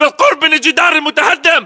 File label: landmark near wallbroken, localized english battlechatter